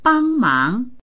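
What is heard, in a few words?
"bang mang", Mandarin; 帮忙; 手伝う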